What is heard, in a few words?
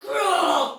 Damage Soldier Female, Battle Barks; Dialog; en; Sinecure